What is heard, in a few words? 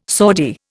"Saudi", Indian